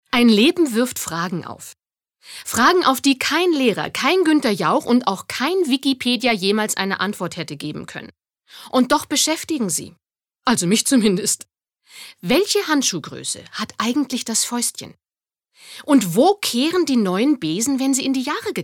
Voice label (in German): Schauspiel Energisch